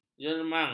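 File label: "jermang", Malay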